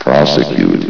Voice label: "prosecute", vox; S.P.L.U.R.T-Station-13

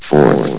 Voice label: "fourth", vox; S.P.L.U.R.T-Station-13

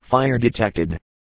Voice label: detected, firebot voice

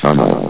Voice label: "tunnel", vox; S.P.L.U.R.T-Station-13

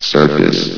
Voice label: "surface", vox; S.P.L.U.R.T-Station-13

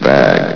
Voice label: "bag", vox

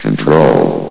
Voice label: "control", vox

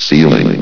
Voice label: "ceiling", vox; S.P.L.U.R.T-Station-13

